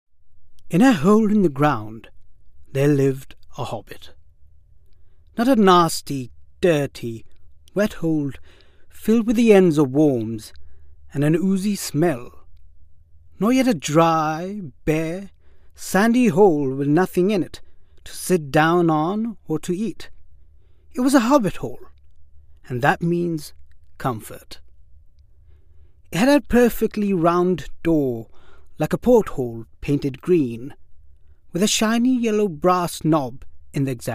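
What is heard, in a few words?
Hindi, Accented English; voice demos; GoLocalise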